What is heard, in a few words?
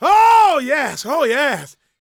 SHOUTS, Gospel, PREACHER; ILIO; Vocal Planet